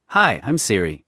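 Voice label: US English; en-US; iOS Sounds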